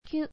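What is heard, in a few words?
"kyu", Japanese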